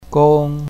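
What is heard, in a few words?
"gong1", Mandarin Chinese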